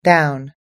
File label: "down", us english